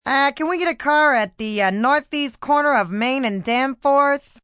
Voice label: Taxi Get A Car, Taxi (or other ) dispatch radio, taxi, 'can we get a car...'; human voice speaking